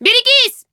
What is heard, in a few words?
enemy, characters voice human woman fight